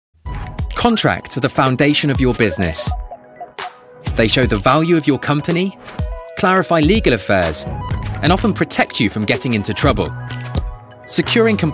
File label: Corporate showreel no slate